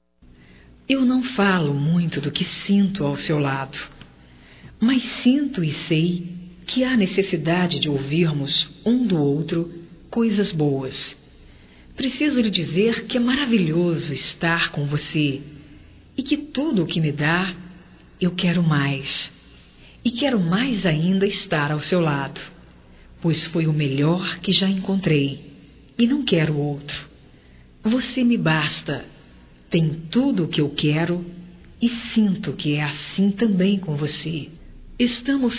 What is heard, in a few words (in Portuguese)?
DECLARAÇAO DISCRETA, Românticas, fem